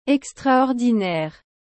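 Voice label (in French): "extraordinaire", French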